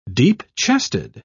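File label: "deep-chested", British English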